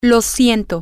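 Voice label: im sorry, Spanish, female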